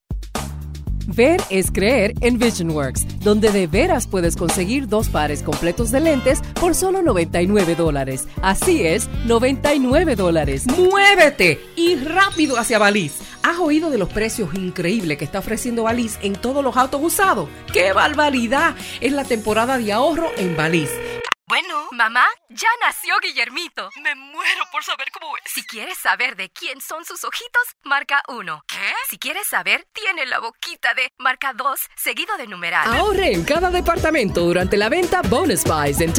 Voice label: Spanish, females